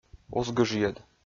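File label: "ус гыжйӧд", Komi, male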